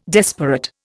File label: "desperate", Indian